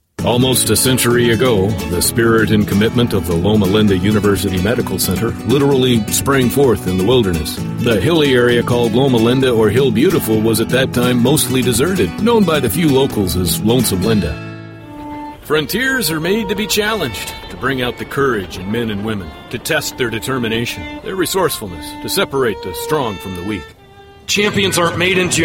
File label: Docu